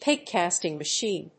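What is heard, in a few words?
"pig casting machine", English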